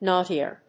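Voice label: "naughtier", English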